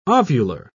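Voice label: "ovular", English, British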